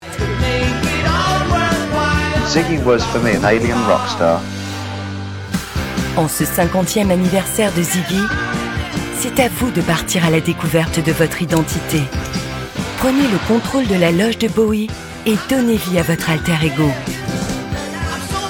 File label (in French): Promo Web; extrait ADOBE